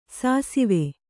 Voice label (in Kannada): "ಸಿಸವೆ", kannada